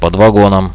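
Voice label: under the wagon, Russian, radio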